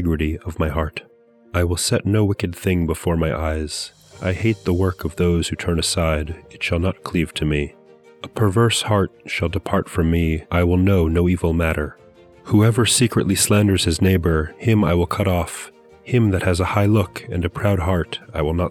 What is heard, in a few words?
poetry and prayer psalm english; Meir Soloveichik